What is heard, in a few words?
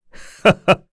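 Vox Happy, korean; Bernheim; kingsraid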